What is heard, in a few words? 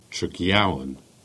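"Tregawne", Cornish; place-names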